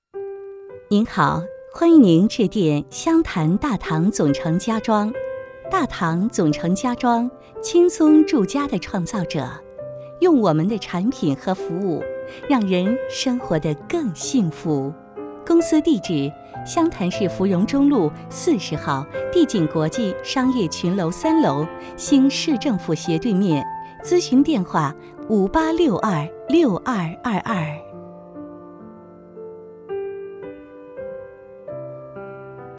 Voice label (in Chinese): 彩铃 公司彩铃录制